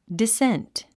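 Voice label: "dissent", English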